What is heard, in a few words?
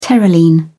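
"terylene", English, British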